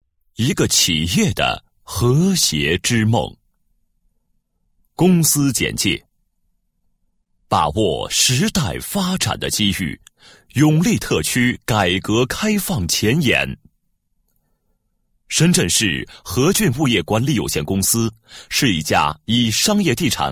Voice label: 男2 专题, 国语男声配音; 深圳和郡

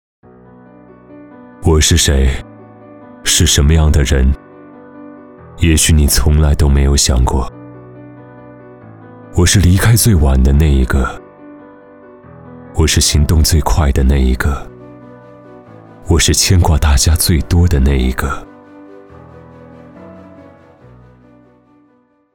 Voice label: 公益广告, 沉稳磁性, 独白

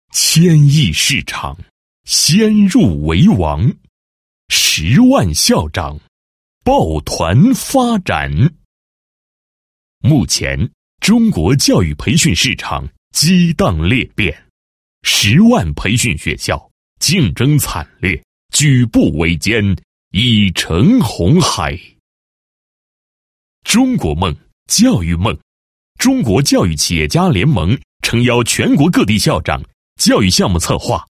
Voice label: 男 教育机构 峰会, 国语男声配音

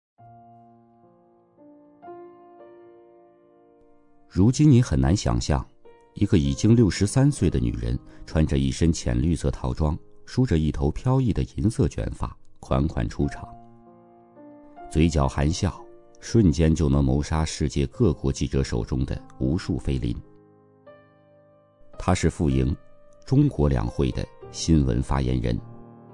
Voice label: 男 专题 人物介绍, 国语男声配音 记录风格; 傅莹

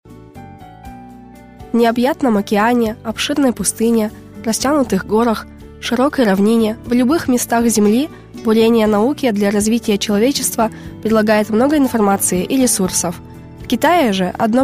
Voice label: 俄语女声

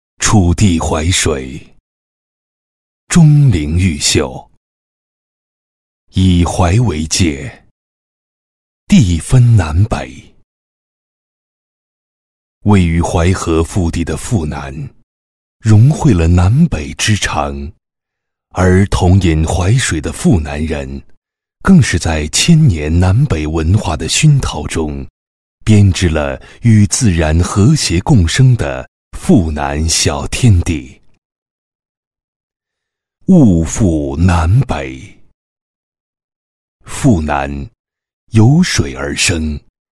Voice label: 男 宣传片 城市宣传片; 物阜南北

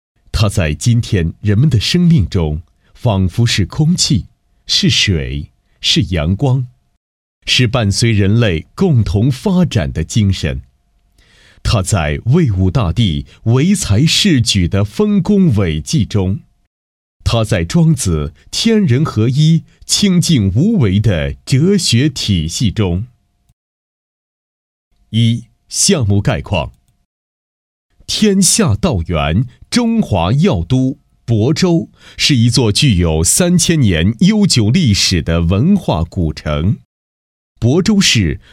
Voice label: 男 专题 建筑介绍（舒缓深沉）, 国语男声配音

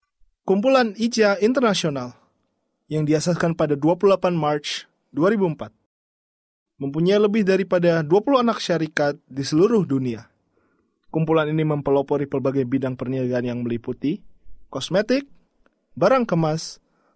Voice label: 马来语男声

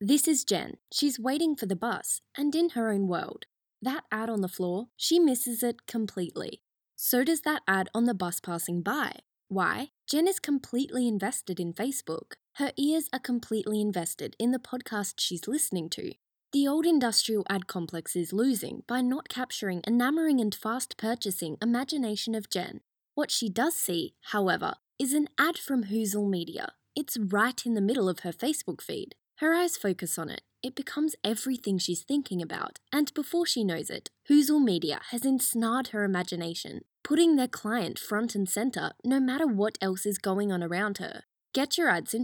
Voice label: 外籍英女 网站推广 自然叙述

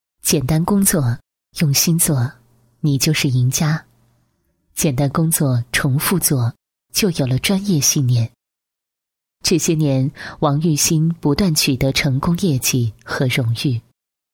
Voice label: 女, 独白 最真实的内心独白; 泰安银行人

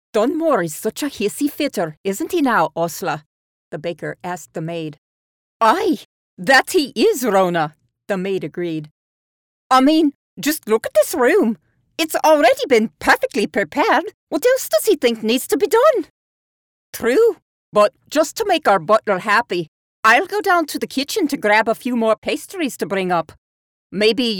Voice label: 外籍女 Scottish dialect 电视人物 苏格兰口音

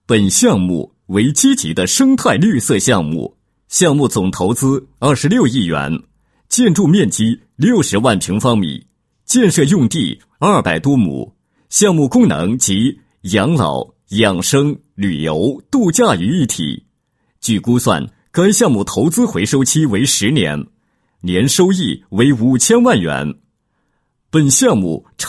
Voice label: 解说 项目解说, 国语男声配音, 稳重专题

